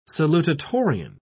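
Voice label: "salutatorian", British English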